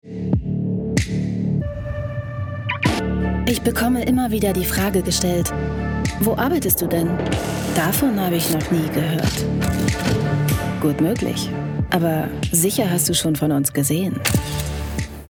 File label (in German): imagefilm; gieske